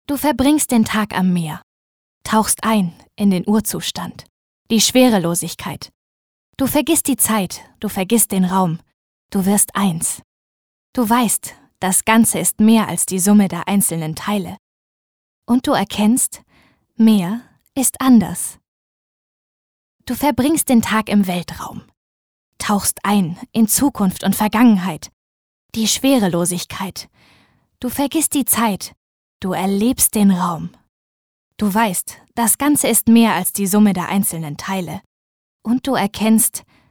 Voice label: Schauspiel, Neutral

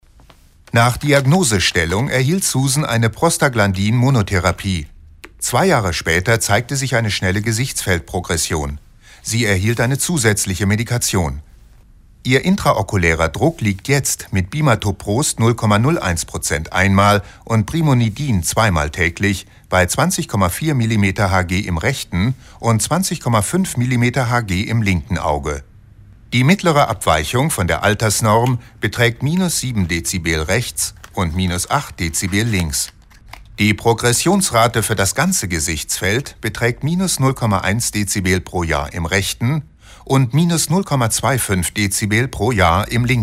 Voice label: Kommentar Dynamisch

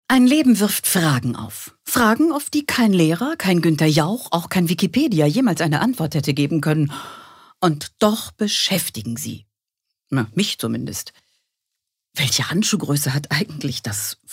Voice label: Schauspiel Witzig

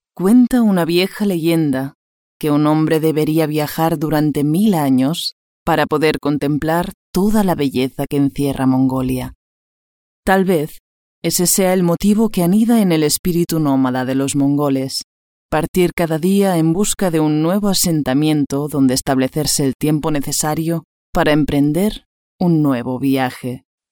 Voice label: ESP Neutral; Fremdtake

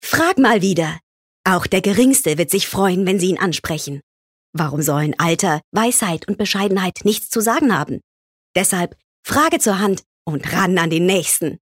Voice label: Werbung Dynamisch

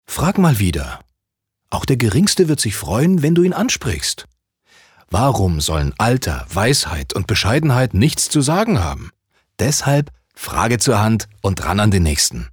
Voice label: Werbung Frech

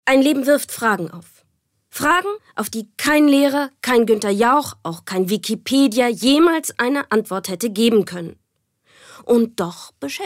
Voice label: Schauspiel Dynamisch

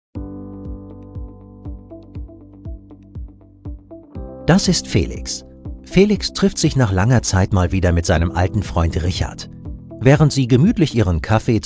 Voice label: Neutral; Fremdtake

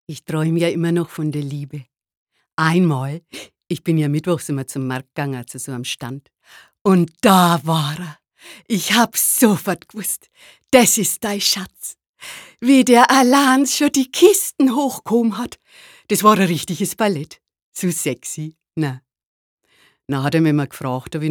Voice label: Dialekt Fraenkisch

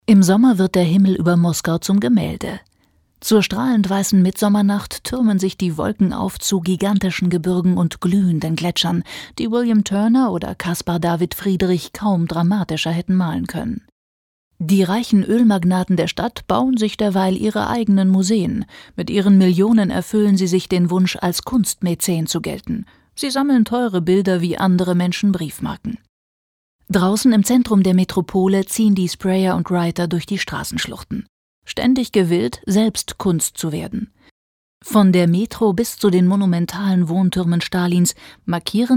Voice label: Kommentar, Neutral

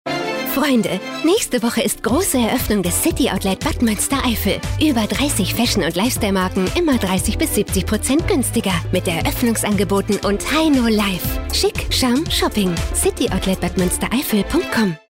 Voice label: Freundlich

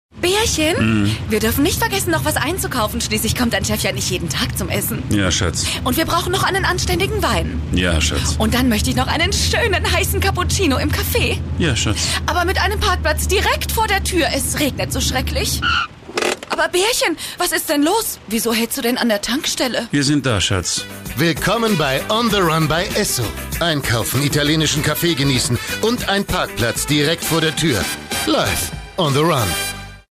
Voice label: Werbung Aufgeregt

